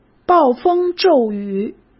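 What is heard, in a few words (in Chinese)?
"暴风骤雨", Mandarin Chinese; chinese-flashcards; hsk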